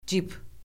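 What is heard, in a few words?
"cip", Azerbaijani; Orfoqrafiya Lüğəti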